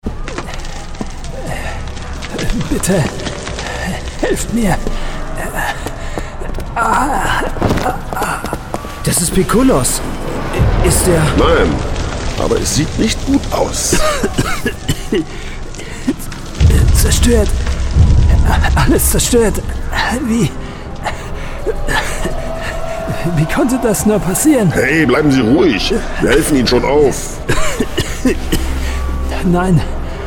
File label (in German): Hoerspiel